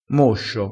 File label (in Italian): "moscio", crespinese